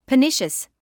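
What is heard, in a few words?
"pernicious", British English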